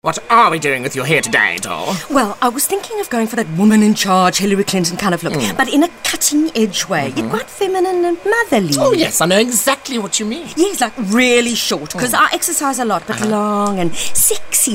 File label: Hard Sell